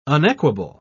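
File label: "unequable", British English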